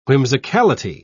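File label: "whimsicality", British English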